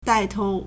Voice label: "dai4 tou2", Chinese